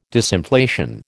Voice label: "disinflation", American

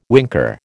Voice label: "winker", American

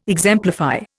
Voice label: "exemplify", Indian

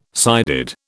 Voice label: "sided", British

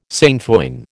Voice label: "sainfoin", American

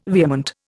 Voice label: "vehement", Indian

